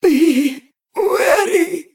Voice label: Female Broken Death

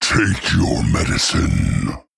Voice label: Male Worgen Play

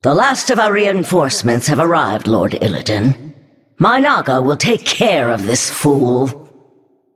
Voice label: Female Naga

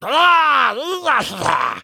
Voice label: Male Murloc

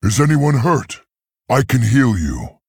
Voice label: Male Tauren; Guff; Rokara

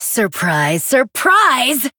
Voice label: Female Human; Young Tamsin; Cariel

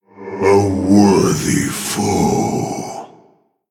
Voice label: Male Orc Well Played

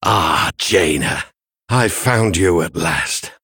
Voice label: Male Human Victory; Daelin

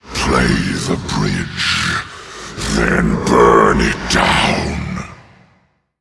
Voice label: Male Voidwraith

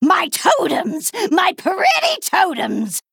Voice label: Female Troll Play

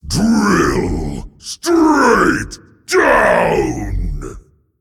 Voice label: Demon Emote Play; Moarg Drillfist

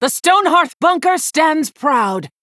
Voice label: Female Human Bark

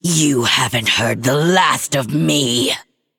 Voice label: Female Forsaken Concede

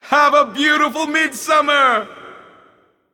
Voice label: Male Void Elf Emote FIRE FESTIVAL

